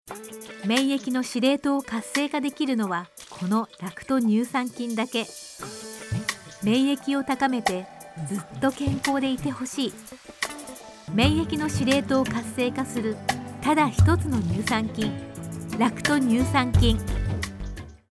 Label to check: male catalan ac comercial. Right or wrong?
Wrong